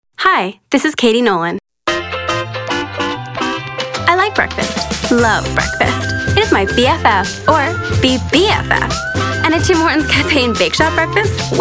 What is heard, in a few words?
commercial female